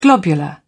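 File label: "globular", English, British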